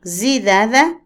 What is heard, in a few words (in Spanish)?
"dios", Otomí, mujer; Religión; Vocabulary; INALI